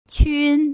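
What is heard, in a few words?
"qun", Putonghua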